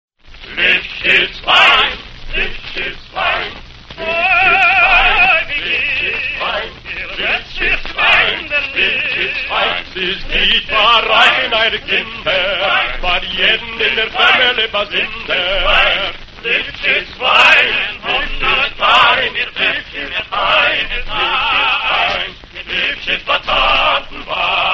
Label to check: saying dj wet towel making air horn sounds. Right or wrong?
Wrong